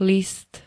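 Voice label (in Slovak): "list", Slovak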